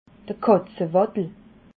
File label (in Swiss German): "katzewadel", alsace